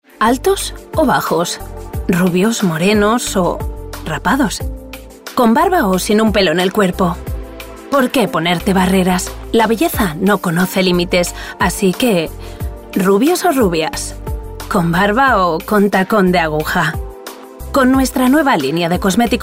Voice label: female spanish cosmetics comercial